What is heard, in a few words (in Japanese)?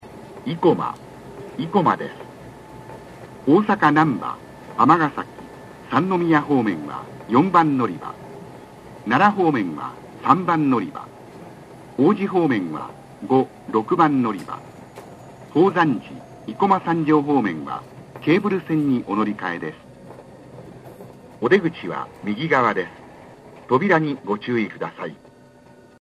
stop ikoma; kintetsu; keihanna line